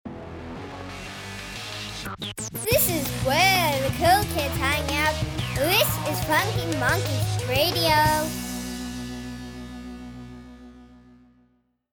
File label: GIRL, Jingles; Funky Monkeys; UK Jingles